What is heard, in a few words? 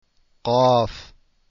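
"qaaf", Arabic letters; deen path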